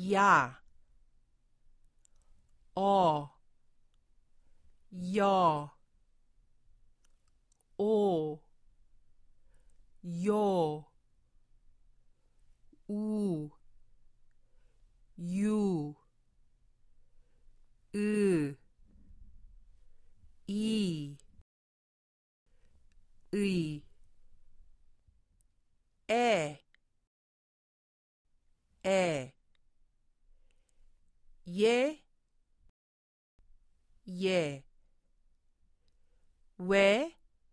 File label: Bonus Hangeul Vowels, korean